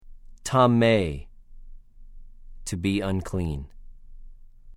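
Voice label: "טָמֵא", Hebrew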